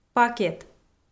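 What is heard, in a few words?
"пакет", Komi, female; audio dict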